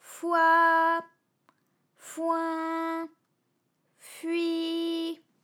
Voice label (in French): foi foin fui, vocal library, FRA